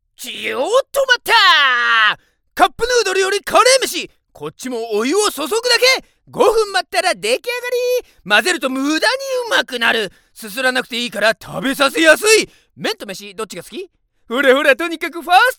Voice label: japanese others